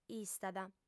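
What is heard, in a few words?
"istat", female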